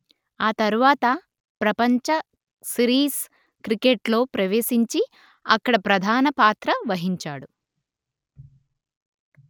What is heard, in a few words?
Telugu